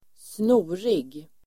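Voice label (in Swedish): "snorig", Swedish; Uttal: [²sn'o:rig]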